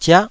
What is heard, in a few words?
"ziah9", Taiwanese syllable